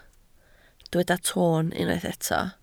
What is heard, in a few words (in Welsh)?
"toon" Welsh